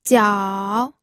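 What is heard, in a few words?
"jiao3", Mandarin Chinese third tone; pinyin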